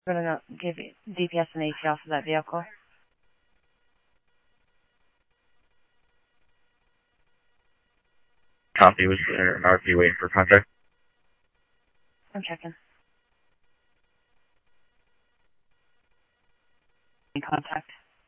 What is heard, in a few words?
Mesa Simulcast, MESA PD, scanner audio